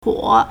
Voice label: "huo3", Chinese